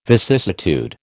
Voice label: "vicissitude", American